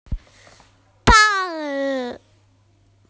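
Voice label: "pan2", Mandarin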